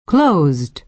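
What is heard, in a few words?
"closed", American English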